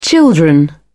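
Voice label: "children", British English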